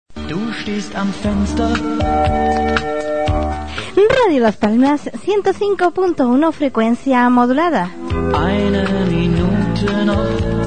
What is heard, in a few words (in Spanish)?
Promo programa, Radio; Tardes de radio; Radio Las Palmas